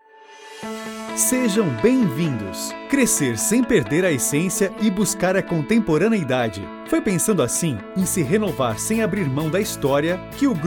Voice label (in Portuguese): institucional